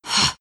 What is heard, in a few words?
anger; dota2; lina